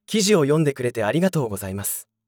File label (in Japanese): 男性